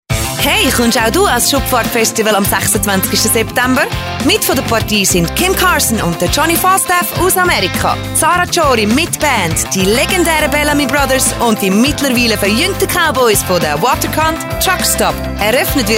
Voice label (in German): Werbung; Schupfart